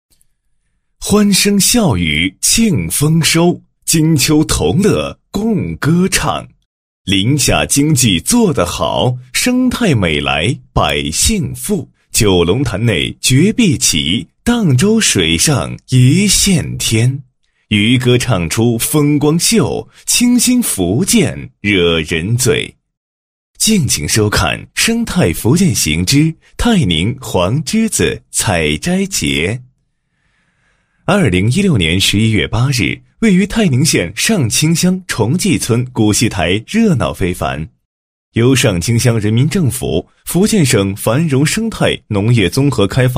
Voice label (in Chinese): 男 电视栏目 电视栏目配音; 生态福建行